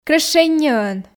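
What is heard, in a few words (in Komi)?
"крещенньӧӧн", Komi, female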